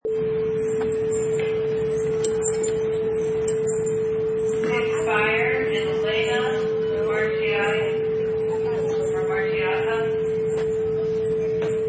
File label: Airport Loudspeaker Announcement